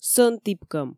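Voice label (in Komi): сӧн тіпкӧм, Komi, female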